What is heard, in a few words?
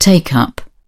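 "take something up", English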